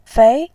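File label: "fei", Mandarin Chinese, second tone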